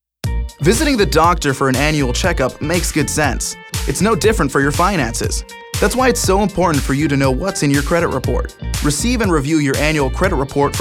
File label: English; Credit Check